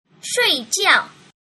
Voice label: "shuijiao", mandarin